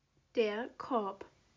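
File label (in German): "Korb", German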